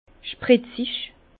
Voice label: "spritzig", Bas Rhin; vin; pointu

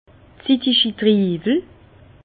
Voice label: "zitigitriiwel", Bas Rhin; vin; raisins murs

